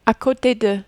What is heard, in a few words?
"a cote de", French